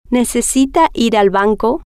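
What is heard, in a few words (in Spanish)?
necesita Ir Banco, spanish, female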